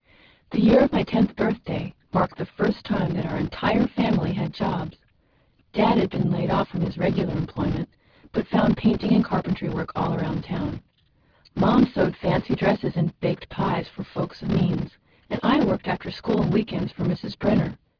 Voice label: female clean